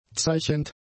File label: "zeichend", German; adjectives